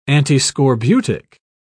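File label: "antiscorbutic", British English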